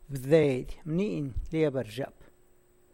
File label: The boy gave the book to the girl, San Lucas Quiaviní Zapotec; Bdeidy mniny liebr zhyap.